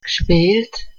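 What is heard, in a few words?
"gspìelt", Upper Alsatian Swiss German; Milhüsa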